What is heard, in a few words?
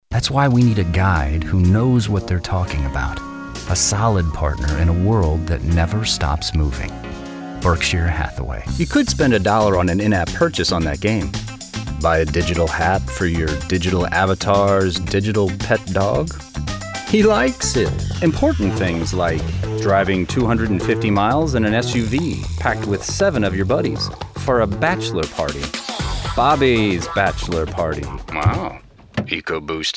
Commercial